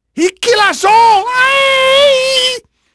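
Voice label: Portuguese male, voice; Pirates Of The Caribbean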